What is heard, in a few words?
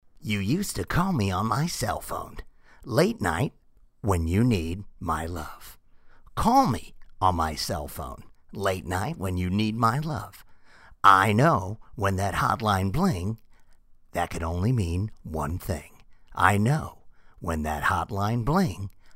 impressions